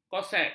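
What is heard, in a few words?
"kosek", Malay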